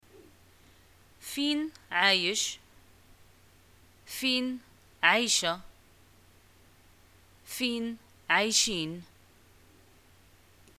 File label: where do u live, arabic, ma